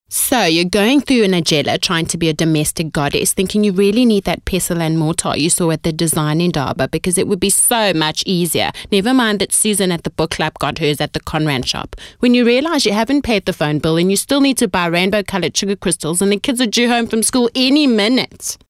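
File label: english South African female commercial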